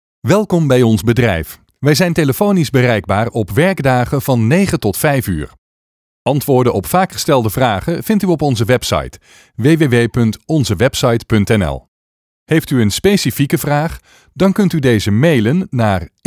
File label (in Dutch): stemdemo IVR